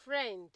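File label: "friend", Nigerian Pidgin, female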